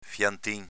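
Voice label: "fiantin", Venetian dialect; avv. pochino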